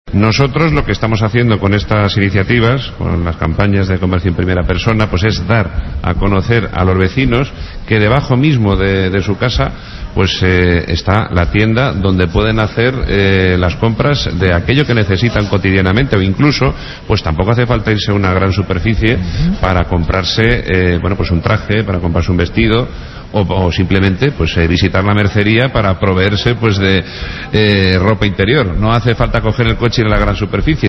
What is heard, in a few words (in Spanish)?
noticias